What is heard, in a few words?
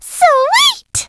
Peach Wiimote Sound